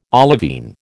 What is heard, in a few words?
"olivine", American